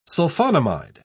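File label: "sulphonamide", British English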